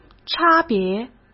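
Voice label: "差别", Mandarin Chinese; hsk; chinese-flashcards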